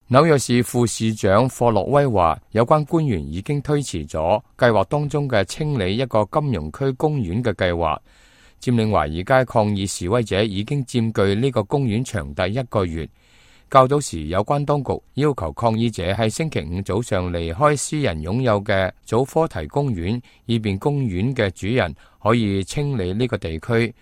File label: cantonese, news